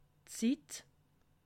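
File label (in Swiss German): "sitt", Alsatian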